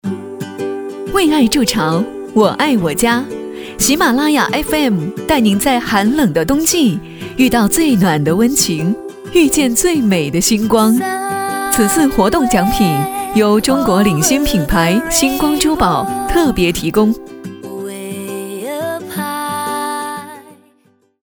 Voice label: 女 电台宣传 温柔甜美; 喜马拉雅

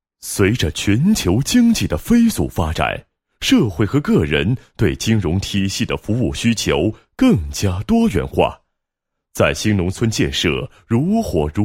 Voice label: 男-企业-小贷简介, 配音案例; 宝利小贷简介

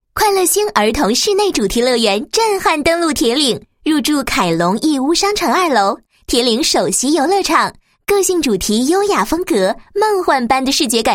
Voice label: 女 宣传广告 儿童室内主题乐园; 快乐星儿童室内主题乐园

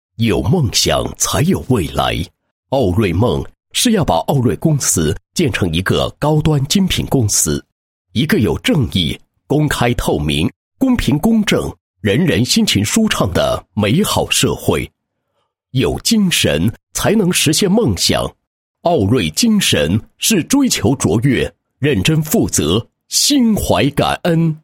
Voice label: 男-宣传片; 大奥锐科技有限公司

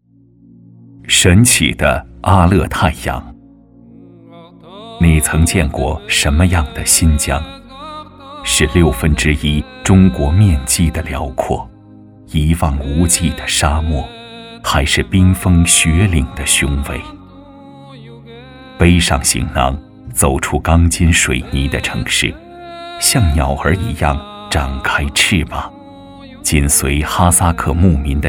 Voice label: 男 专题 抒情, 国语男声配音 大气浑厚; 神奇的阿勒太阳

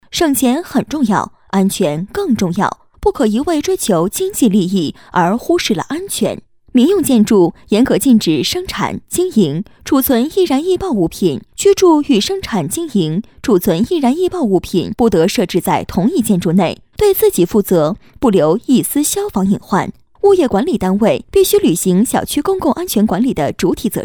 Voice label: 女 飞碟说类; 331防火

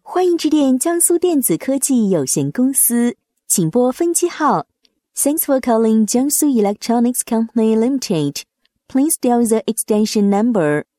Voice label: 女-彩铃; 欢迎致电江苏聚为电子科技有限公司

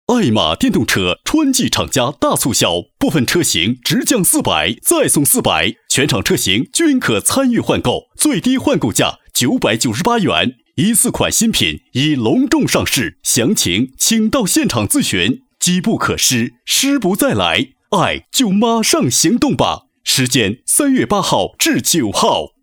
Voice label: 男 广告 电动车, 国语男声配音 稳重专题; 艾玛电动车

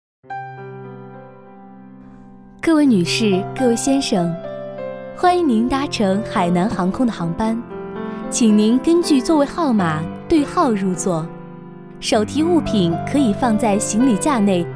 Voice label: 女 语音系统 机场广播词女声; 国语女声配音

